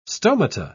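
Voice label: "stomata", English, British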